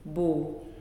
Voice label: Betelnut, Nalik

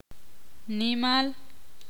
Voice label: ixil; muchos

